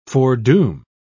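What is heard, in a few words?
"foredoom", en, uk British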